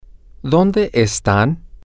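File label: where are you all, Spanish, male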